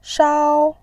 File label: "shao1", voice